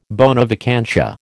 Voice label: "bona vacantia", American